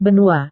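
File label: "benua", Indonesian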